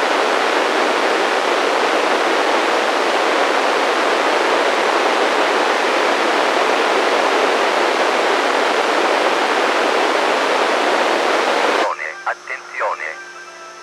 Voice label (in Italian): Annuncio, pmr446